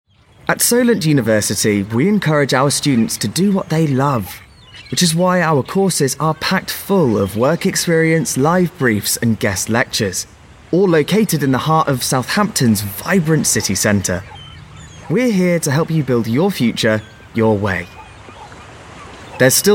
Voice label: college university read, Young Educated British Voice